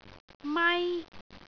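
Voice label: "mai1", Cantonese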